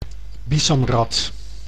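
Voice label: "bisamrat", Dutch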